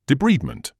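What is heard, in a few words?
"debridement", British English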